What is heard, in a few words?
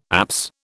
"apse", British